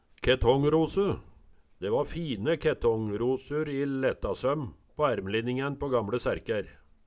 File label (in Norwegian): ketongrose, Numedalsmål